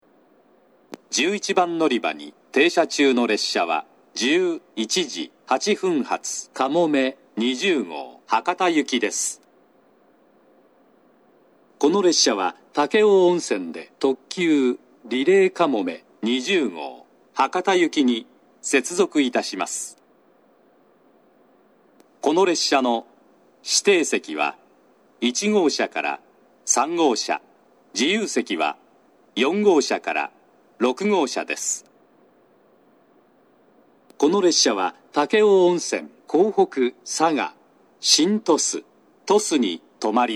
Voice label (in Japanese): 嬉野温泉, 11番のりば停車中放送; かもめ20号 博多行き; 武雄温泉